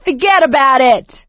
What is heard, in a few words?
taunt, survivor voice; Left 4 Dead 2; producer